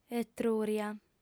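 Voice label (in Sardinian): "etrùria", female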